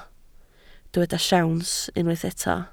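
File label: "siawns" Welsh, spoken word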